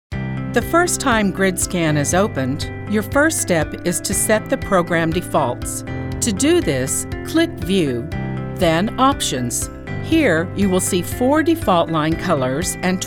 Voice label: Voice Over Narration eLearning